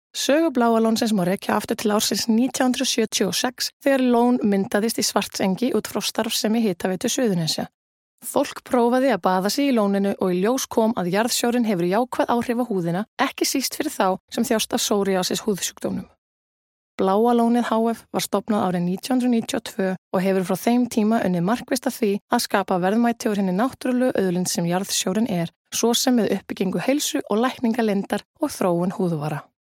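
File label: Icelandic general; GoLocalise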